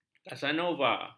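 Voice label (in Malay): "kasanova", Malay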